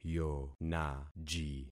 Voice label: "yonaji", concat syllables; Affixing experiment